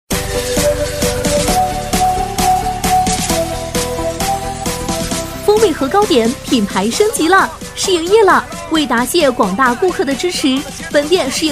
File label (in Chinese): 女 促销; 锋味河糕点